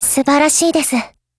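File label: appreciate, Japanese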